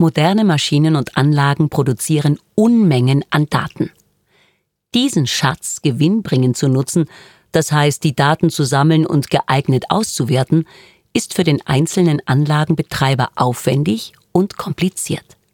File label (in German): Werbung